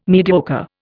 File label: "mediocre", Indian